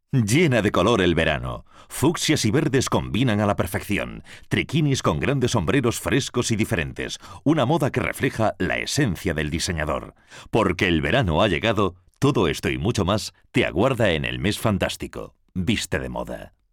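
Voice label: Spanish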